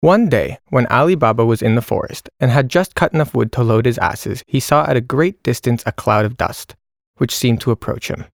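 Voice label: English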